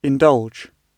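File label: "indulge", British English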